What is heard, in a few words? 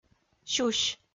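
"сюсь", Komi, female; kpv audio dict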